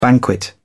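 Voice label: "banquet", British English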